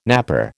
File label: "napper", American